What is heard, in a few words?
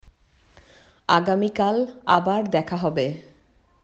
Bangla